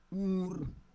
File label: "ur", Roon